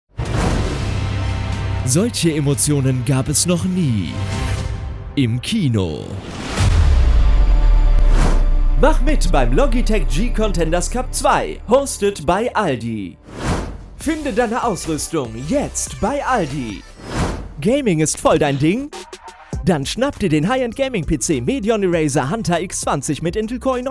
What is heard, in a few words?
commercial; dolby; logitech; aldi; virtualbundesliga; musicalibre